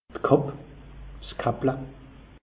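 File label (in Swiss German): "kapp", Alsatian; meteo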